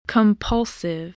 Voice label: "compulsive", english

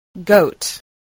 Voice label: "goat", voice

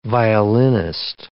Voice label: "violinist", English

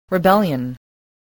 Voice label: "rebellion", english

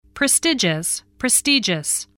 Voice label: "prestigious", voice, spelling

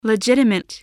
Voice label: "legitimate", spelling

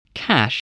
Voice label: "cash", spelling

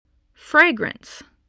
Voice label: "fragrance", English voice, spelling

